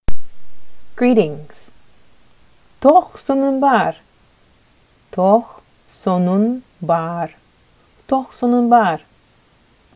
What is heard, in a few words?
Sakha Greetings